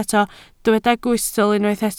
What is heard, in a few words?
"gwystl", Welsh